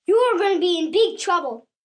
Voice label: Gonna Be In Big Trou, 1 boy, 8 yrs